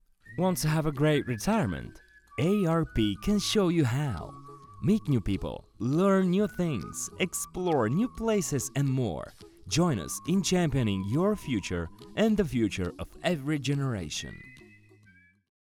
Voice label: Commercial English